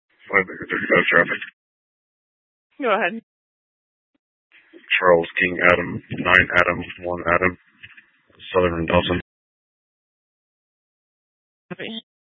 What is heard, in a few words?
Mesa PD Dispatch